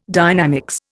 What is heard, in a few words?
"dynamics", Indian